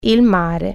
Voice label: sea, italian